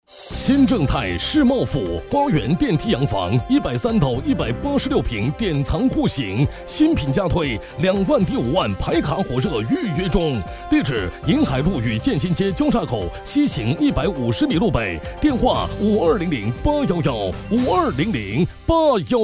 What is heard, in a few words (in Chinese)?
男,专题; 鑫正泰世茂府